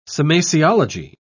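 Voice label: "semasiology", British English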